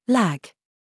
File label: DRESS TRAP, minimal pairs